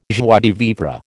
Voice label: "joie de vivre", American